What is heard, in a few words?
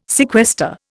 "sequester", Indian